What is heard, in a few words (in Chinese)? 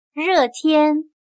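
"热天", Chinese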